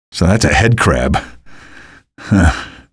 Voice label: alert head, male